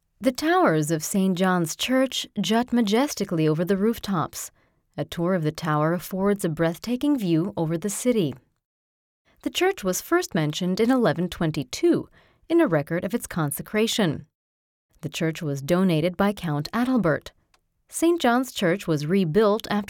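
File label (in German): Audioführung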